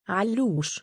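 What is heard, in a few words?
"3alluush" male, Arabic; عَلُّوش; ‘allūsh; アッルーシュ; Alluush、Allush、Alloush、Alloosh; オオカミ（狼）；ジャッカル；男性名アリーの愛称の一つ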